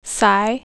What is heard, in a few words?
"sigh"